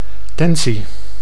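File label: "tensie", Dutch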